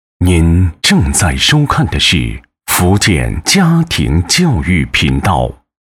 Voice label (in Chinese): 男 栏目包装, 国语男声配音; 福建家庭教育频道